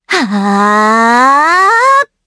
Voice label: Vox Casting, jp; Aisha; kingsraid

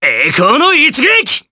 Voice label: Vox Skill jp; kingsraid

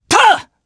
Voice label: Attack, jp; Theo; kingsraid

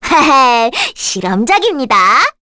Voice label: Skill, kr; Requina; Halloween; kingsraid; voices heroes

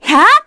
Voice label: Vox, kr; Artemia; kingsraid

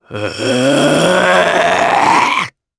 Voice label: Vox Casting, jp; Ezekiel; kingsraid

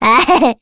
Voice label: Happy, kr; Requina; kingsraid; voices heroes

